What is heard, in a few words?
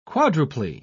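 "quadruply", English, British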